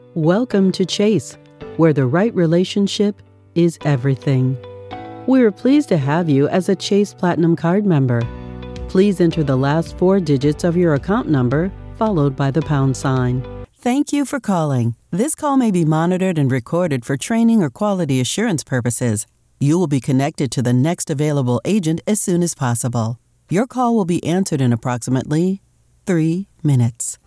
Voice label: IVR

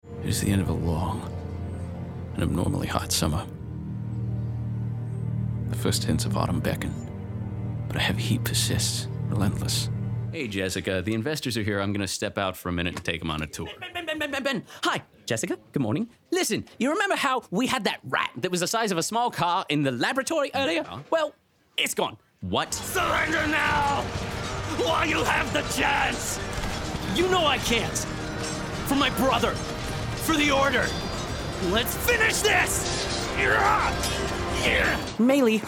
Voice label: Character

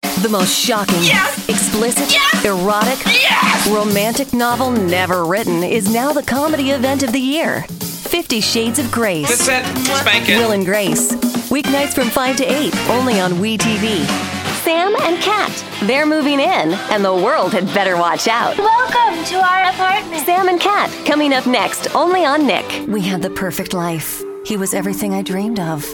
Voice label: Promo

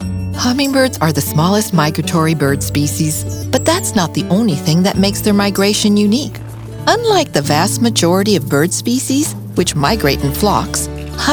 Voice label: Narratives

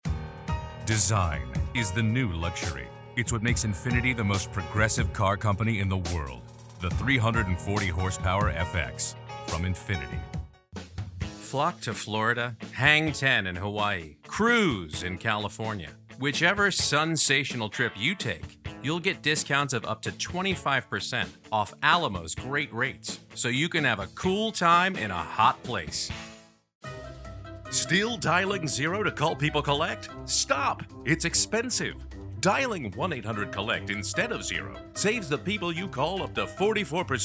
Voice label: Hard Sell

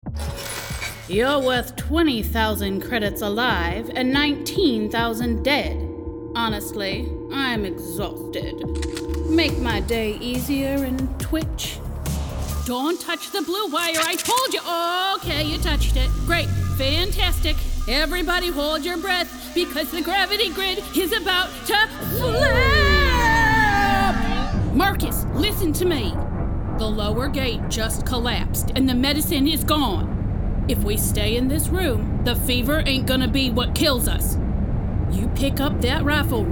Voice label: Gaming VOICEREEL

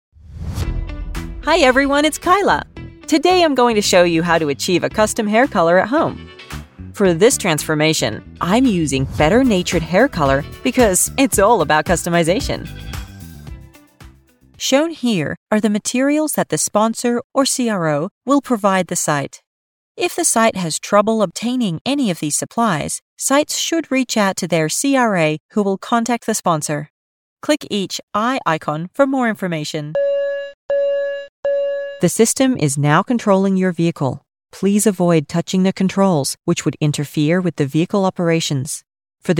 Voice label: E-LEARNING

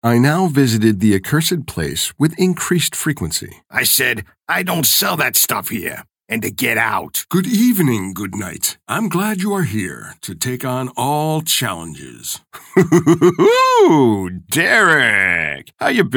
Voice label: Audiobook Character

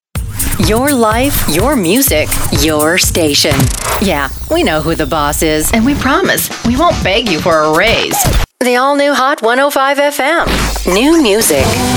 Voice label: Imaging

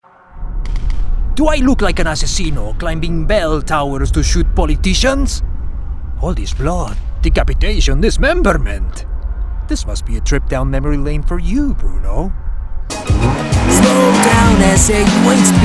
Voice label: Character Compilation ENG

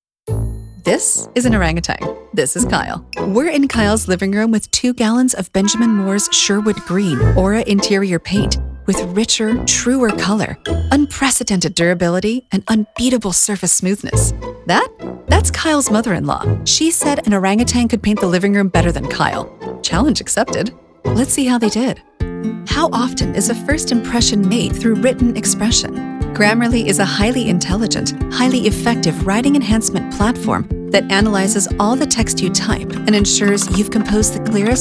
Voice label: EXPLAINER

